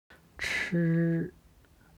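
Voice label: "chi", Chinese; 吃; 食べる; HSK Level One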